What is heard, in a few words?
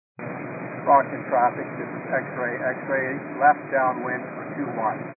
122 725MHz, scanner radio